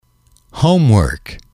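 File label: "homework", English, male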